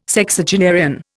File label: "Sexagenarian", Indian